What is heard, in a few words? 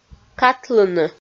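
"катлыны", Komi, female; kpv audio dict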